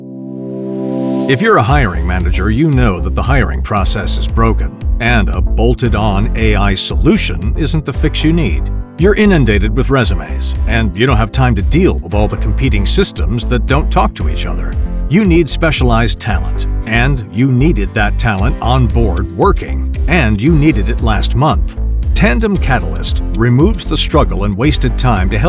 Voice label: Corporate